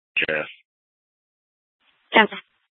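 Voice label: Police Department North Dispatch, scanner; Scottsdale PD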